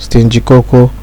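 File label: "stingy koko", Nigerian Pidgin, male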